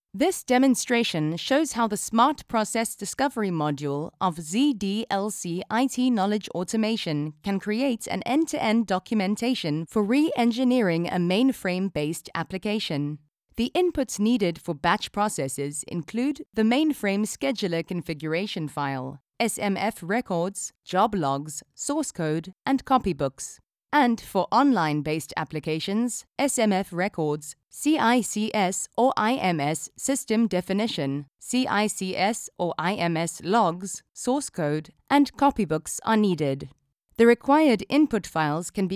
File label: Narrative Corporate